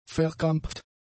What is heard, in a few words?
"verkrampft", German; adjectives